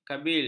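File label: "kabil", Malay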